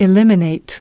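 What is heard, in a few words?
"Eliminate", English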